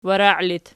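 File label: "warai" locative, Archi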